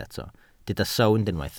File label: "sownd", Welsh